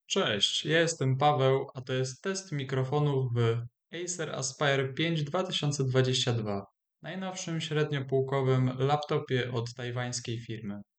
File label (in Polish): test mikrofonow acer aspire 5